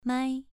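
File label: "mai", tone 2, pronunciation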